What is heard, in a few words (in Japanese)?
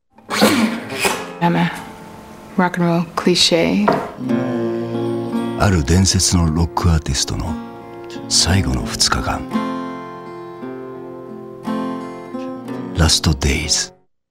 映画SPOT, ナレーションサンプル; 「CASTDAYS」